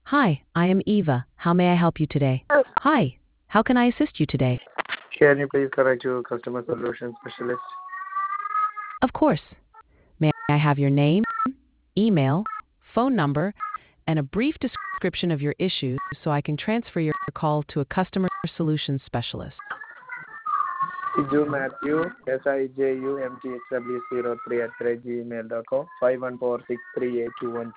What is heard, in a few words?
conversation